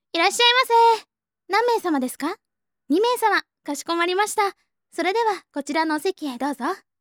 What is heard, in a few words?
w welcome